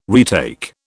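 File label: "retake", British English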